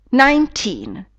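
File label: "nineteen", English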